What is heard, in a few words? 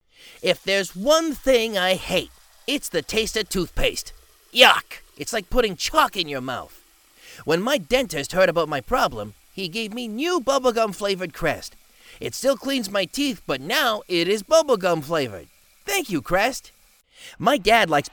Kid's voices